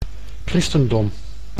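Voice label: "christendom", Dutch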